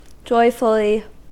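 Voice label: "joyfully", American English; pronunciations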